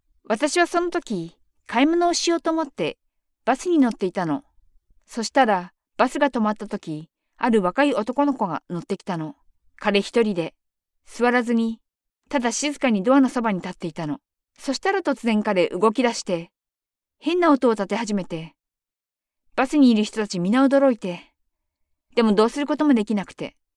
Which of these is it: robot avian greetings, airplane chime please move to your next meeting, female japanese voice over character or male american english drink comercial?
female japanese voice over character